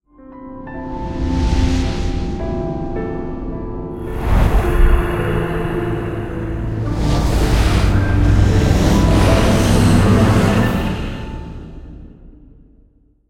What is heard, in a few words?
cinematic voice; lineage2